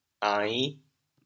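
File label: "ain5", Teochew, chaoyang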